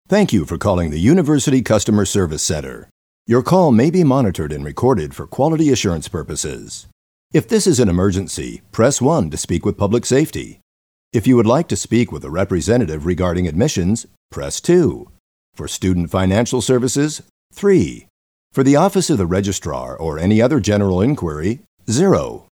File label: us english ivr